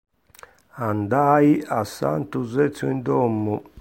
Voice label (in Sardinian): "Domu", sardu